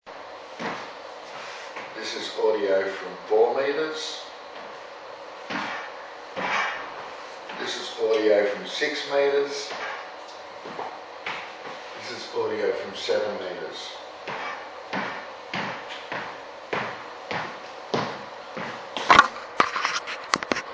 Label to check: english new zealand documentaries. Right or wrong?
Wrong